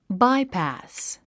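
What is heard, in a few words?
"bypass", English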